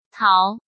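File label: "Táo", Chinese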